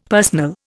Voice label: "personal", Indian; Dictionary